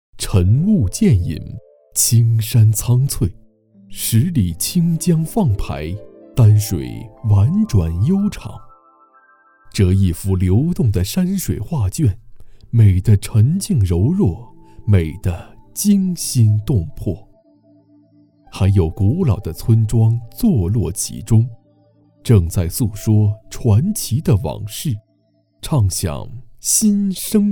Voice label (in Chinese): 男 舒缓宣传片; 丹水莲花